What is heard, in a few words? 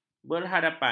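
"berhadapan", Malay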